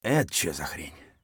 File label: mutter; Escape from Tarkov